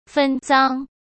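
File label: "分赃" Mandarin Chinese; hsk; chinese-flashcards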